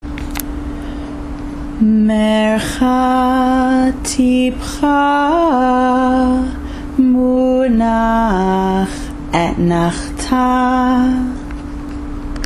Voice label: mercha tipcha munach etnachta